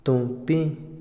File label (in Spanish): deber, Otomí, hombre; Posesión; INALI